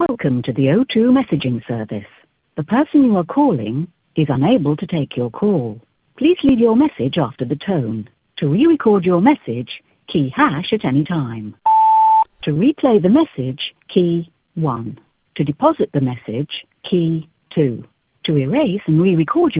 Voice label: UK Voicemail; Zagranica